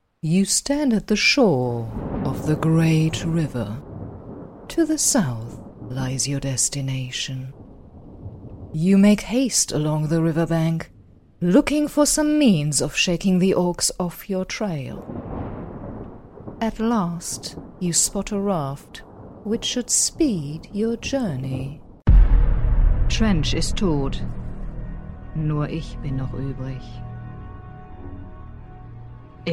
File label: German Character English German; GoLocalise